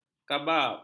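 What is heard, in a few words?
"kabab", Malay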